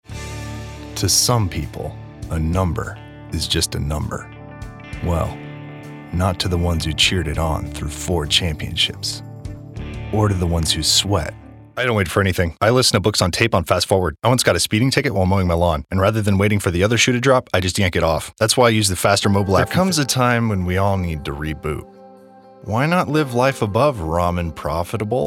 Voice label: commercial